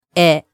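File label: "e", Japanese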